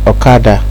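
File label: "okada", Nigerian Pidgin, male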